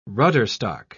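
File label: "rudderstock", English, British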